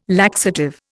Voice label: "laxative", Indian